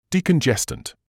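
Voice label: "decongestant", British English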